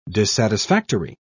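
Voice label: "dissatisfactory", British English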